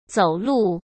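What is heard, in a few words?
"走路", Mandarin Chinese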